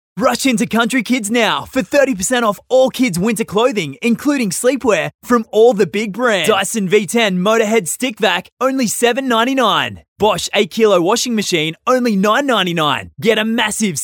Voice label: Hard Sell